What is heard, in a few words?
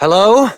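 Voice, English; New War; Operator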